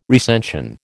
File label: "recension", American